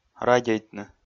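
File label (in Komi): "радейтны", Komi, male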